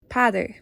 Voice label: "Peadar", Irish; irish names